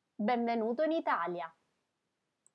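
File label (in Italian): "Benvenuto", Italian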